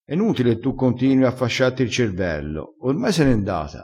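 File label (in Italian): "fasciassi", vocabolario dialettale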